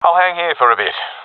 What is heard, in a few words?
waiting here, professional epic; vo; Counter Strike Model Pack; CS:GO